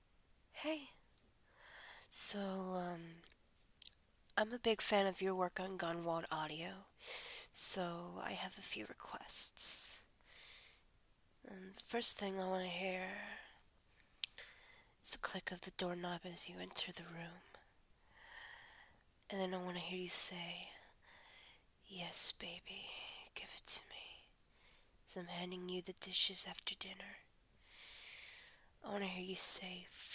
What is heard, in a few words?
Romantic, Longing, Soft-spoken; F4M; Some Requests